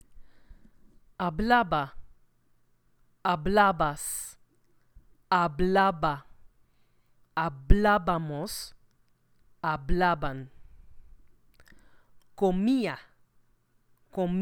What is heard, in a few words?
Spanish imperfect tense pronunciation